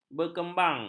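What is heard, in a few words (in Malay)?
"berkembang", Malay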